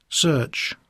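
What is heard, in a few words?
"search", English; poitiers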